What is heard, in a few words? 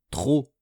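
"trop", French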